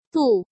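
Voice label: "Dù", Chinese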